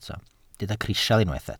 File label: "crisial", welsh